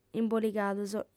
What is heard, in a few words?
"imboligados", audiofem